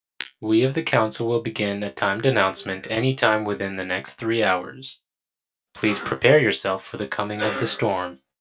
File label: announcement